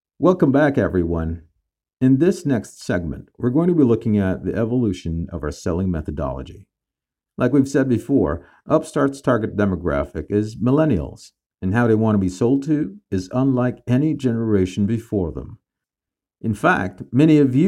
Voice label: Sales Strategy Explainer